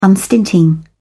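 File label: "unstinting", British English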